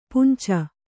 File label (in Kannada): "ಪುಂಚ", Kannada